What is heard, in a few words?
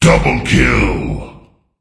Voices Announcer Reward